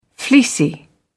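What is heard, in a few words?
"fleecy", British English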